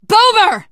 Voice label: warn boomer, teengirl, voice; Left 4 Dead 2

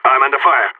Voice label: radio taking fire; player vo phoenix

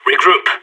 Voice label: radio bot regroup, player vo sas

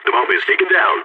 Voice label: bomb ticking down, balkan; Counter-Strike Global Offensive

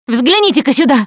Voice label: look here, russian; Producer

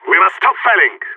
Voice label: scared emote; player vo phoenix

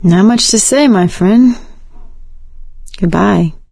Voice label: survivor mourn; Left 4 Dead 2; producer; gambler

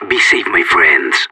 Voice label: radio lock n load, separatist; player vo

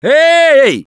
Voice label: lost call, russian; Gambler

